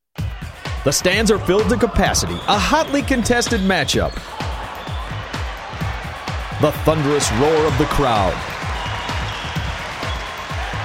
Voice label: audio spot